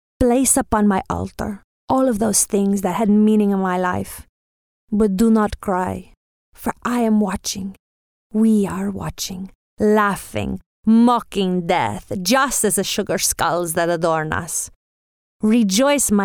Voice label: Reel Narrative Mexican